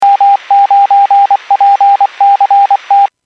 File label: Skywarn 146.67; Stevens Point